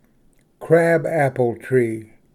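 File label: "crabapple tree", English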